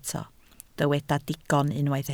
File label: "digon", Welsh